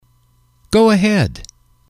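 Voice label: "go ahead", English, male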